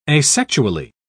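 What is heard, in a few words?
"asexually", British English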